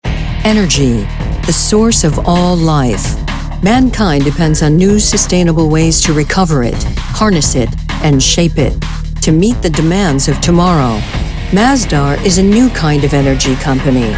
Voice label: Corporate